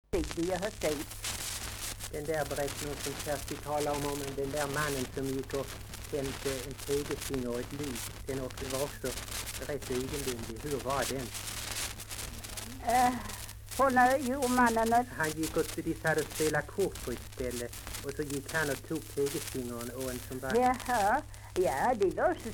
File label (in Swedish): Svenska sagor, dialekter; Folkminnen